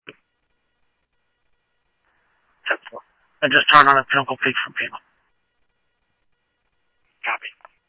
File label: Scottsdale Police, scanner